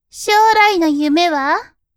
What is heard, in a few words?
woman